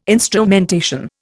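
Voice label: "instrumentation", Indian English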